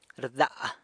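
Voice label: "rda", San Lucas Quiaviní Zapotec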